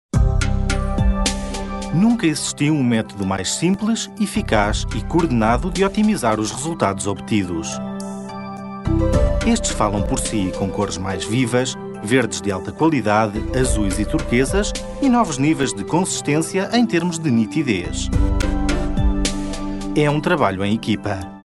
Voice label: male portuguese comercial; ideasonora